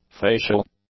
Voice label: "facial", english; adjectives